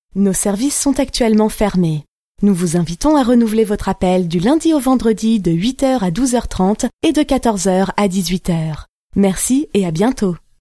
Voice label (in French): Téléphonie Attente